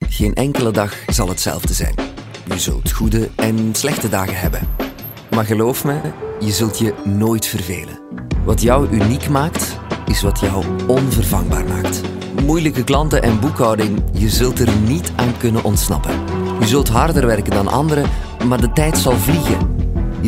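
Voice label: Dutch, Commercial; GoLocalise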